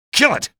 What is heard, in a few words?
alert zombie, male